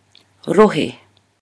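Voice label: "rohe", Maori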